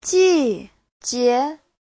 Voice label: "ji4 jie2", chinese; 季节; (danh từ) mùa; Giáo trình chuẩn HSK3